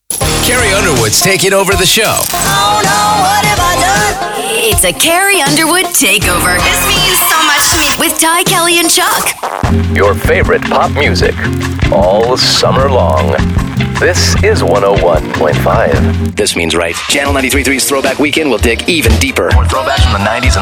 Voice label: Imaging